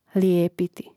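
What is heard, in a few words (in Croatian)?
"lijepiti" Croatian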